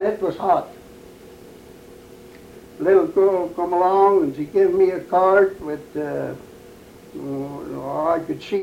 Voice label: Oral history with 89 year old white male Mesa County Colorado, american english dialect recordings